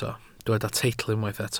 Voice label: "teitl", Welsh

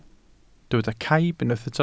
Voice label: "caib", Welsh

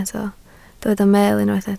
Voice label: "meel", Welsh

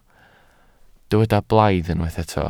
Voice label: "blaidd", Welsh

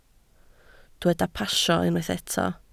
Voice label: "pasio", Welsh

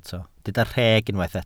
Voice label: "rheg", Welsh

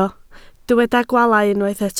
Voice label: "gwaalau", Welsh

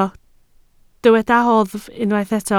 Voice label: "oddf", Welsh

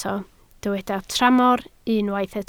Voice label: "tramor", Welsh

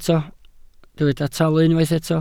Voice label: "talu", Welsh

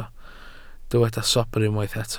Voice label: "sobr", Welsh

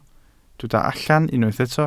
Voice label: "allan", Welsh

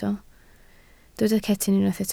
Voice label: "cetyn", Welsh

